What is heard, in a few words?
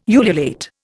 "ululate", Indian